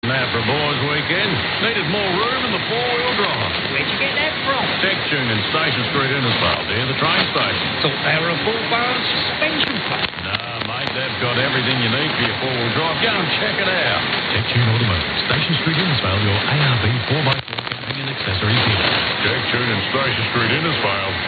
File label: Tech Tune advert; 531 4KZ Innisfail Australia